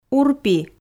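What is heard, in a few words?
"урпи", Komi, female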